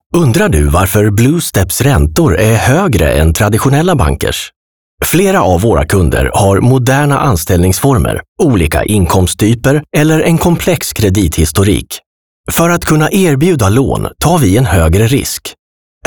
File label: Commercial Balanced Mode